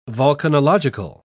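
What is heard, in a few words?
"volcanological", British English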